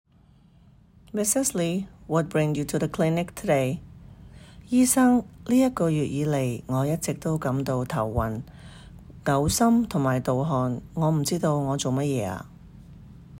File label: Medical Certified Oral Cantonese Practice